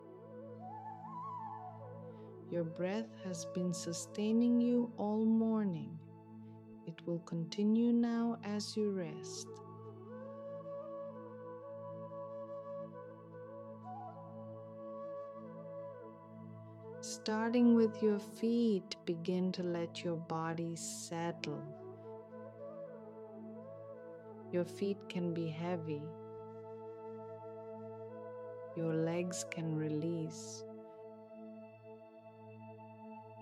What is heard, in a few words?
Afternoon nap meditation